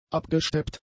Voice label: "abgesteppt", German; adjectives